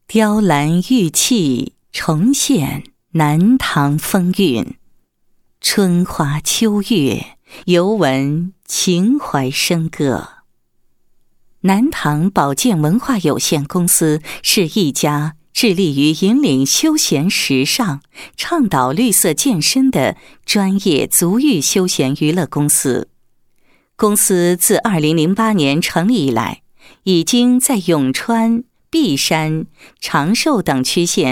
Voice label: 女, 古体诗; 南唐秋月记

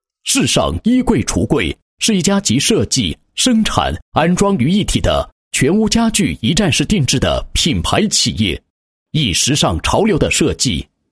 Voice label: 男-家居宣传广告; 世尚衣柜橱柜

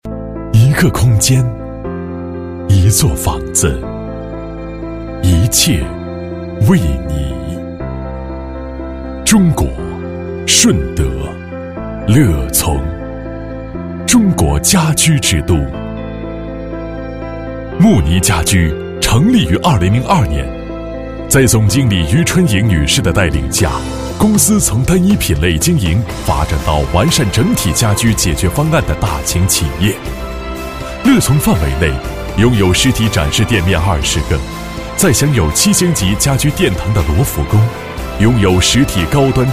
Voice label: 男 企业, 家居宣传介绍; 慕妮家居

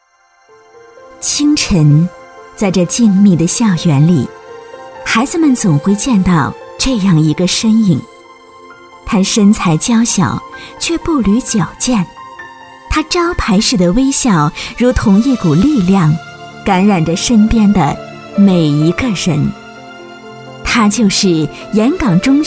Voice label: 女 专题 人物专题 温馨, 国语女声配音

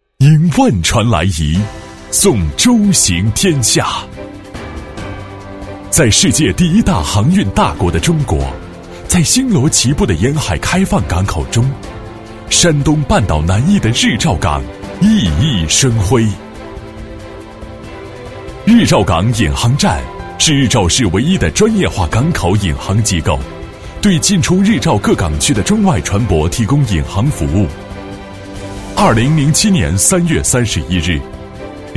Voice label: 男 宣传片; 日照港引航站

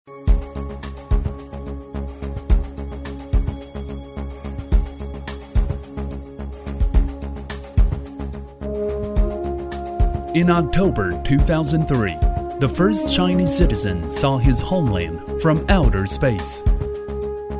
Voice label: 英男, 英文, 宣传片

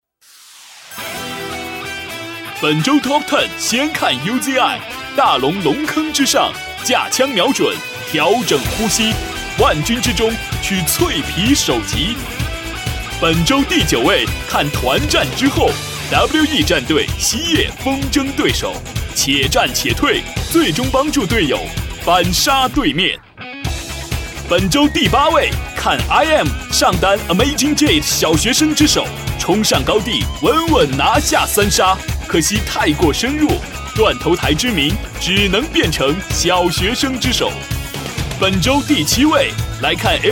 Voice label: 男声 游戏解说; 国语男声配音; 英雄联盟TOP 10

